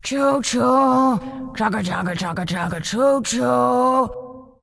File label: Undead Female Choo Choo